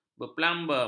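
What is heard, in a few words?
"berplumbum", Malay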